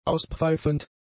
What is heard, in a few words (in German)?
"auspfeifend", German; adjectives